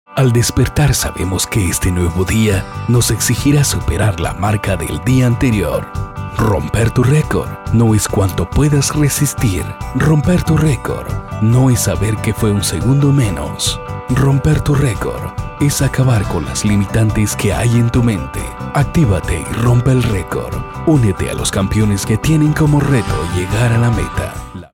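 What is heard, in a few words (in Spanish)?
Español - México - Hombre; VoiceBunny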